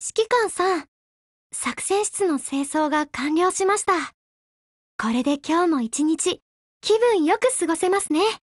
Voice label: HELLO JP; GF Alarm; M1897Mod